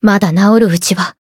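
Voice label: Voice heal, Japanese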